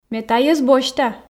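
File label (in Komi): ме тайӧс босьта, Komi, female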